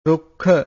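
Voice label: "rukkha", Pali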